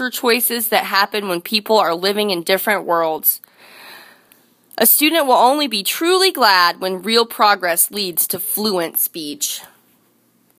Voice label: Language Learning